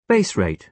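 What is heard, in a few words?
"base rate", British English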